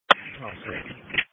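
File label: Police Patrol Dispatch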